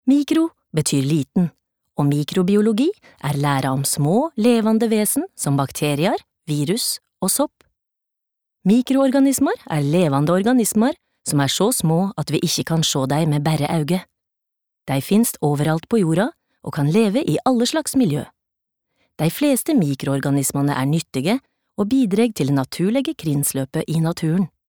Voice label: no nynorsk

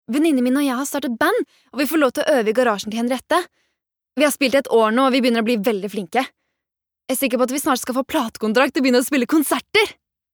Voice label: no dub young adult

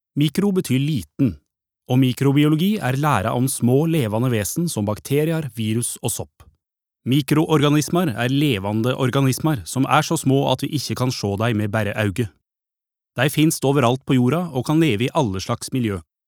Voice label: no nynorsk

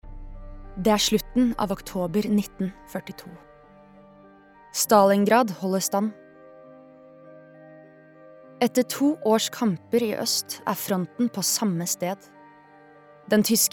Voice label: no dokumentar, Norwegian, female

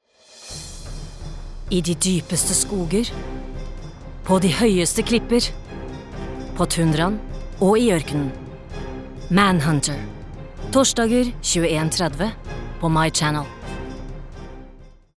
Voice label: no dramatic

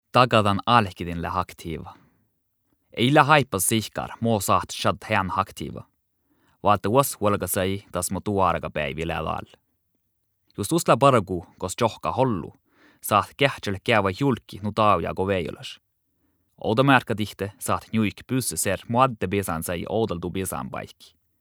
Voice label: northern sami informative

